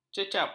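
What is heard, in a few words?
"cecap", Malay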